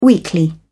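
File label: "weekly", British English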